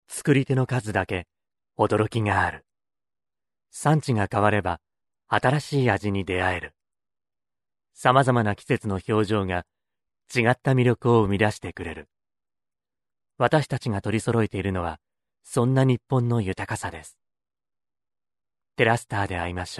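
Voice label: Japanese, male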